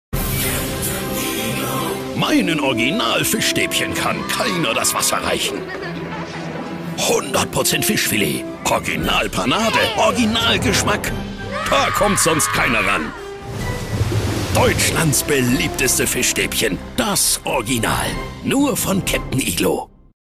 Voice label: Dynamisch

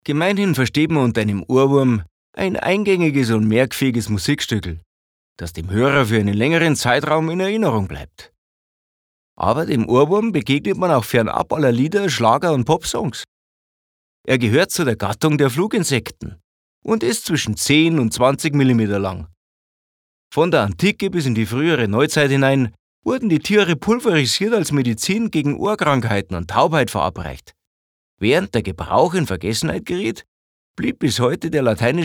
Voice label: Dialekt Bayerisch

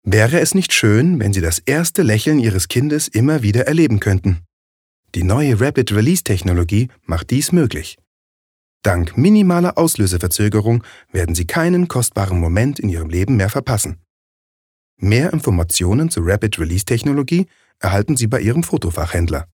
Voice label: Freundlich; RapidRelease

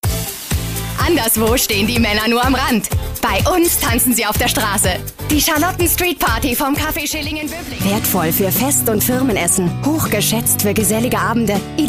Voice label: Werbung Variabel

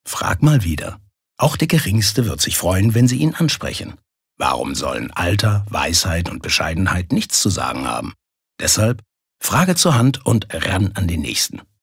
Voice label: Werbung Sanft

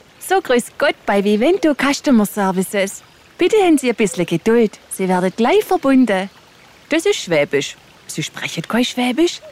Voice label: Dialekt Schwaebisch

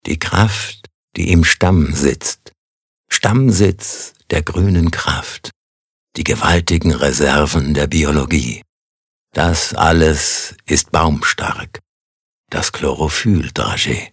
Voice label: Werbung Sanft

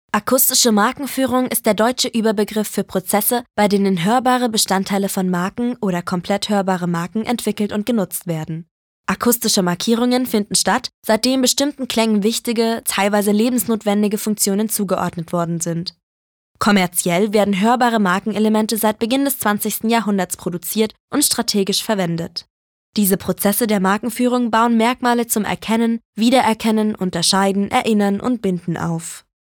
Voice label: Akustische Markenfuehrung Neutral

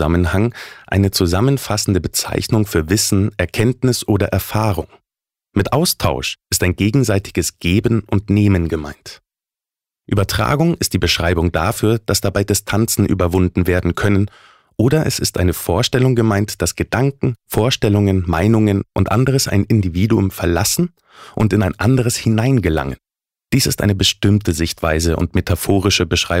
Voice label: Kommunikation Neutral

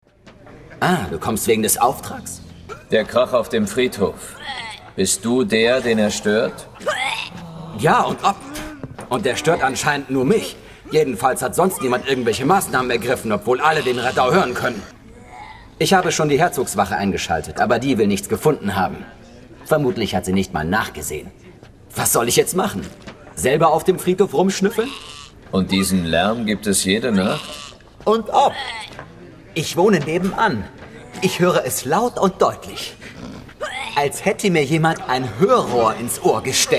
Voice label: Lebendig

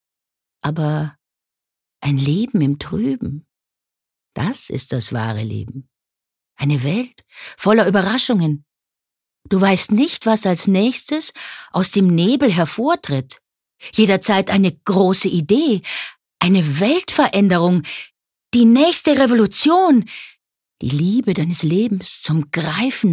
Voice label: Klarheit Dynamisch

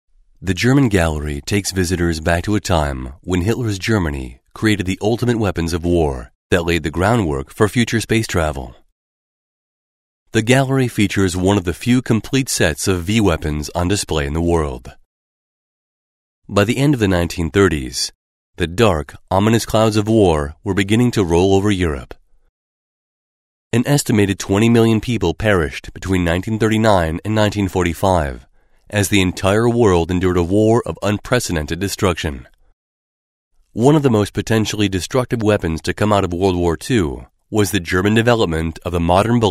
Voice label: Eng US Kommentar Neutral

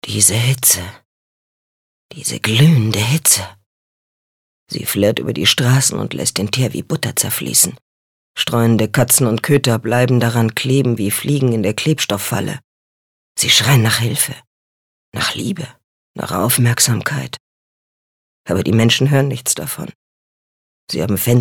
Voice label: Schauspiel Sanft

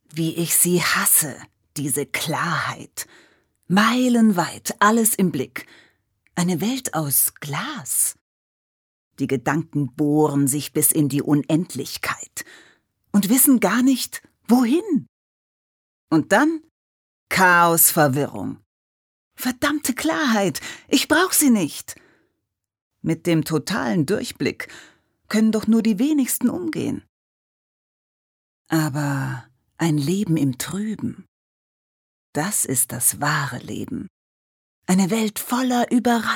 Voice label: Schauspiel Energisch

